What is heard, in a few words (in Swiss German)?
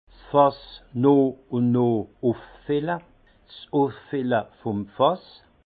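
"fassnooch", Alsace Haut Rhin; vin; ouillage remplir le tonneau au fur et à mesure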